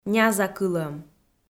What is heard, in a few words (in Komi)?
"нязакылӧм", Komi